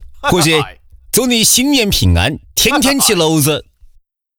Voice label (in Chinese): 湖北